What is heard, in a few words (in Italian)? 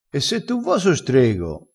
"strego", crespinese